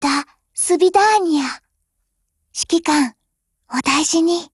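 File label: OPERATION BEGIN Japanese, Voice; GF Alarm; 9A91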